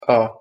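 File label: "a" pronunciation Hungarian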